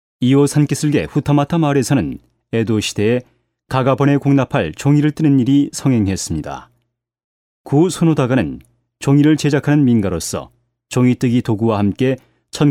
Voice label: Korean informative, male